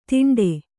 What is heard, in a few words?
"ತಿಂಡೆ", Kannada